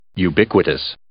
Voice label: "ubiquitous", English